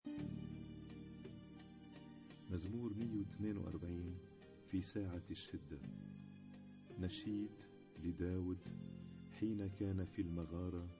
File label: Arabic Psalm Zaboor